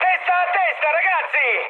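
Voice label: Italian near waypoint mood high, speech; War Thunder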